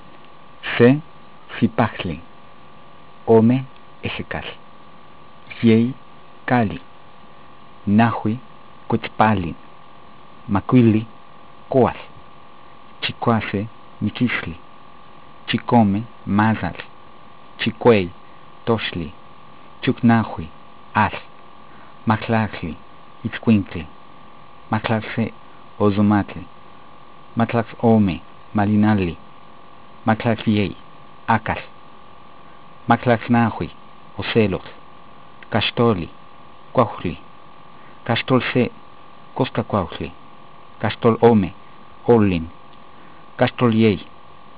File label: nahuatl day